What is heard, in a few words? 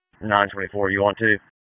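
Dispatch, p25; WSO DISP 2; wake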